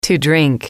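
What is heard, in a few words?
"to drink", English, female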